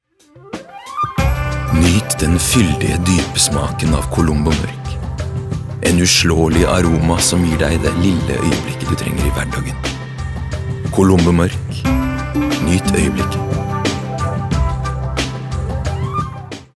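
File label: Norwegian soft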